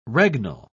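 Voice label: "regnal", British English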